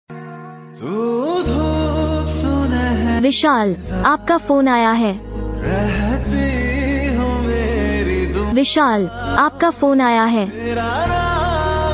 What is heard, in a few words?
vishal name hindi ringtone, love romantic ringtone